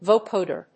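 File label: "vocoder", English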